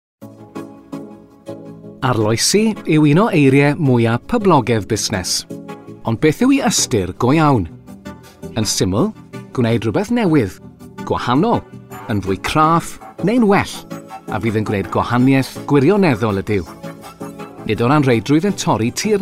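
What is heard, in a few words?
Welsh Male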